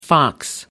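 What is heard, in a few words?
"fox", English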